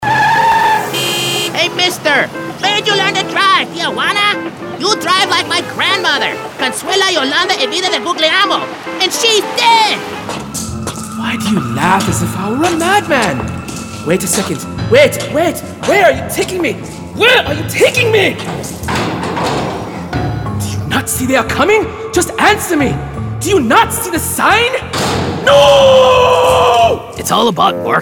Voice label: Animation

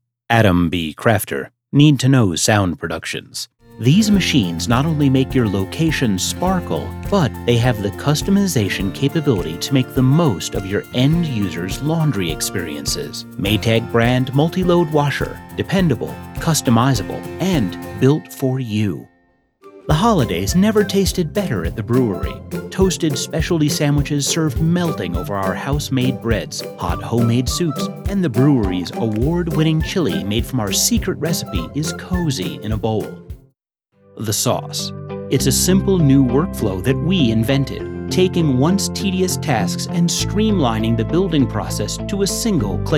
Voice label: Commercial w Music

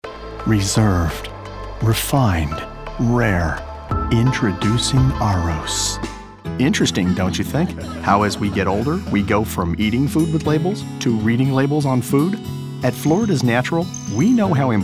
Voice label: Commercial Demo

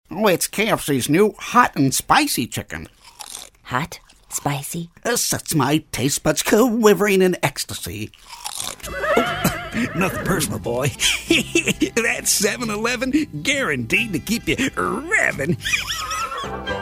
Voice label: Character VO

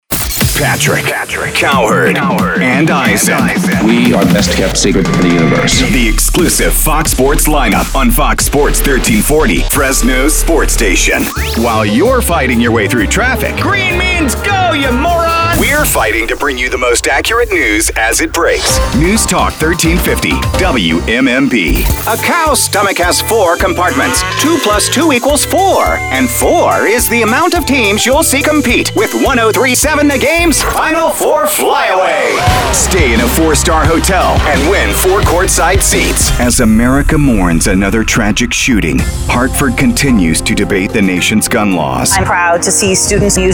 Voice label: Imaging

